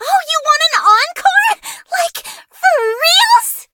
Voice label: victory, en; cookie run

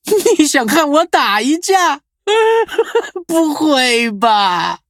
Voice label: loading, zh-hant; cookie run

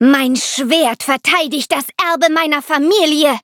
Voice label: loading, de; cookierun

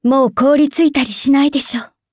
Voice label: upgrade, ja; Cookie Run

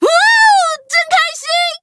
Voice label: levelup zh-hant; Cookie Run

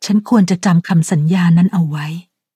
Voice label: level up, th; Cookie Run

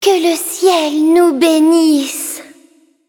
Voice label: levelup, fr; cookie run

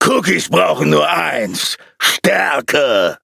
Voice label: loading, de; Cookie Run

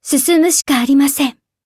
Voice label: levelup ja; cookie run

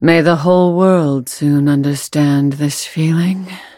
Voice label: defeat, en

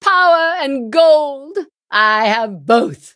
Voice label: levelup en; cookie run

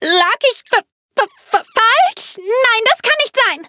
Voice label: defeat, de; Cookie Run

